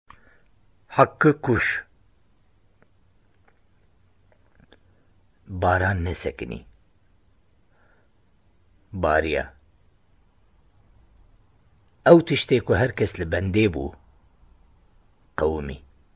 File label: kurdish voices